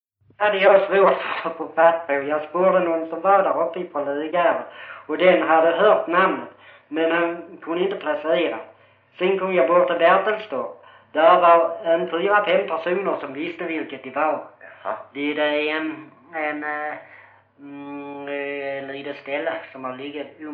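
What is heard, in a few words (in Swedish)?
Brösarp Skåne, dialekter, svenska sagor; Folkminnen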